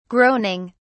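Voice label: "groaning", British English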